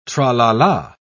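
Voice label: "tra-la-la", British English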